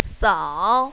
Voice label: "sao3", mandarin, female